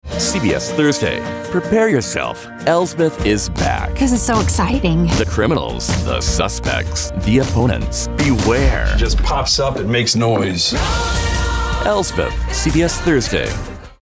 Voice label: PROMO, Witty · Engaging; Promo Demo Clips; Elsbeth